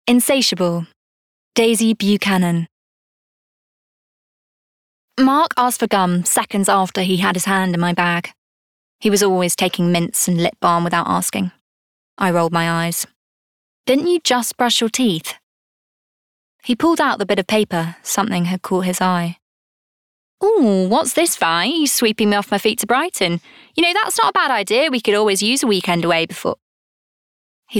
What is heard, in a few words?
audio book; Insatiable